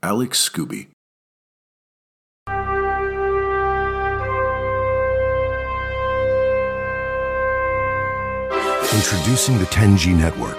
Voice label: commercial demo